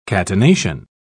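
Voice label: "catenation", British English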